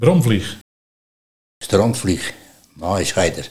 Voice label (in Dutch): Bromvlieg - Strontvlieg of Maaieschijter, Nieuw lekkerlands dialect